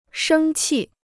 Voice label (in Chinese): "生气", Chinese